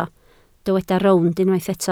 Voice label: "rownd", Welsh

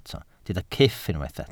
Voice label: "cyff", Welsh

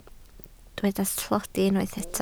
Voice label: "tlodi", Welsh

